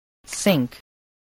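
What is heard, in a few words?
"sink", spoken word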